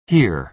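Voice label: "hear", American English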